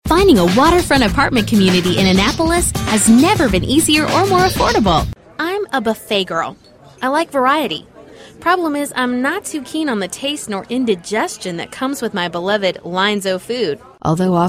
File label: young, female voices for radio commercials